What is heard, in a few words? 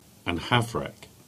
Cornish; Navarac; place names